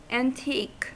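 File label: "antique", American English; pronunciations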